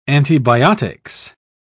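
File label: "antibiotics", English, British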